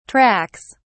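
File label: "tracks", British English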